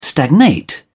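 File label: "stagnate", British English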